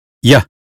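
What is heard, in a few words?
"yes", American English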